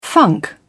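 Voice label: "funk", British English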